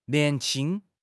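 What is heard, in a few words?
"nian2 qing1", Mandarin Chinese; HSK3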